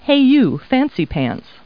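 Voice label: woman; Talking Clip Words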